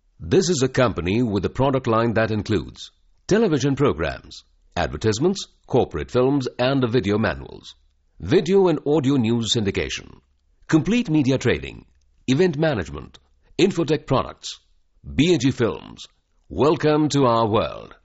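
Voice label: English voice over, male voice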